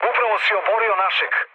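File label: Serbian player killed ally mood low; speech; War Thunder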